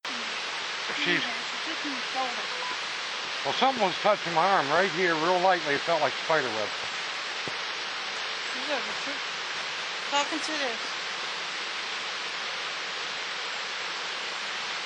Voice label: speaking cherokee